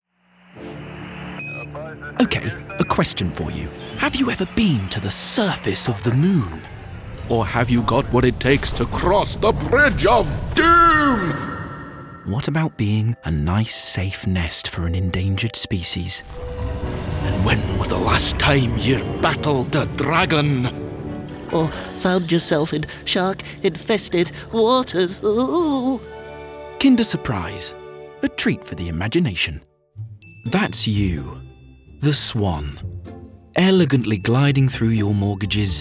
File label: Commercial